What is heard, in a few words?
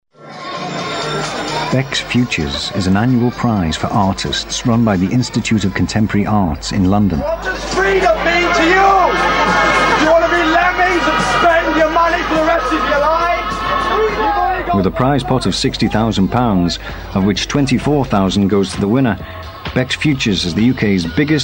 Narration; Becks Futures; Vocal Point